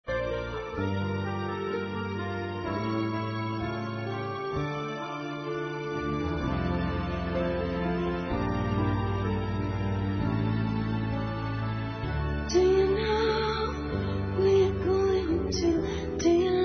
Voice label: HOMENAGEM AO IRMÃO M, Telemensagens; Homenagens; masc

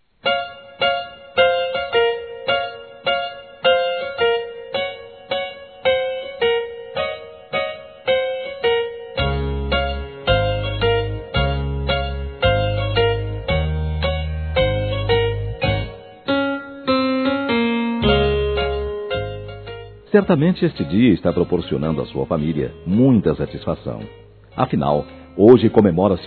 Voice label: Aniversário Cliente Masculino; Empresarial; Telemensagens 2017

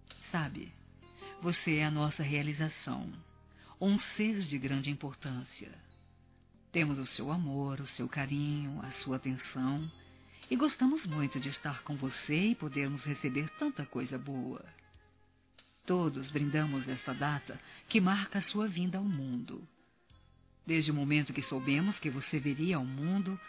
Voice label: Aniversário Neto Feminino; Aniversários; Aniv Familiar

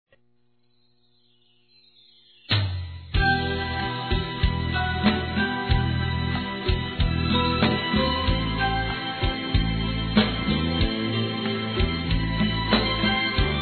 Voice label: Aniversário Irmão Evangelico Feminino; Aniversários

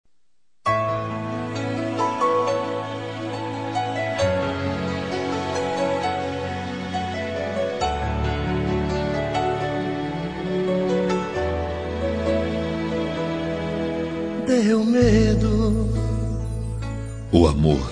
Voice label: ROM VOCE MARCOU DEMAIS MASC

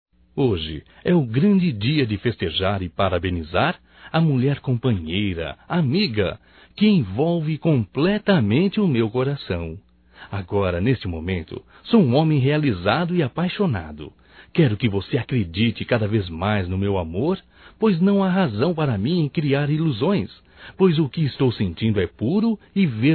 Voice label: ANIV Romântico (M); Telemensagens 2017; Aniversarios

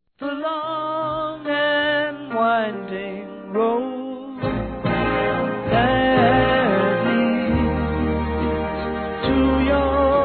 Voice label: Madrinha de Casamento, Femin; Telemensagens; Telemensagens 2017

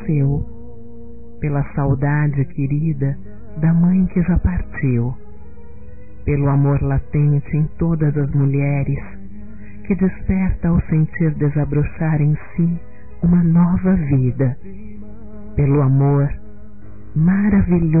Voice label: Dia das Mães Obrigado Senhor Feminino; Telemensagens 2017

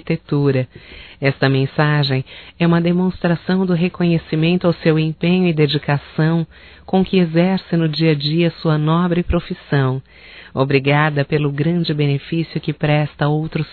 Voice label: HOMEN ENGENHEIRA, Só Voz, fem; Telemensagens; HOMENAGENS

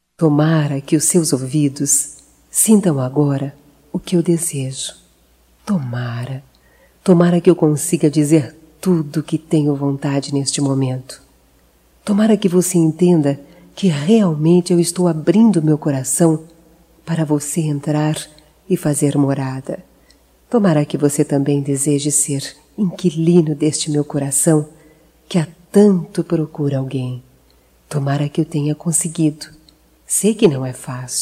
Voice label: Conquista Feminino, Telemensagens

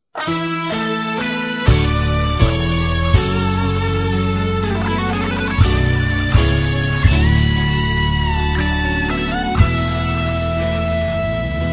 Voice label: Natal Romantico Masculino; Telemensagens; Natal e Ano novo

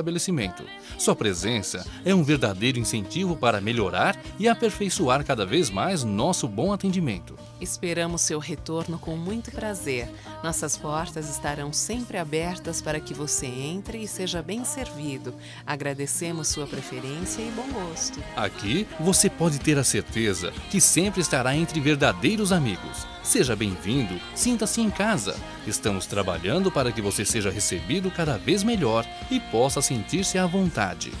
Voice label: Cliente autonomo, Telemensagens, masc; Empresarial; Telemensagens 2017

